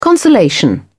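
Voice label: "consolation", English, British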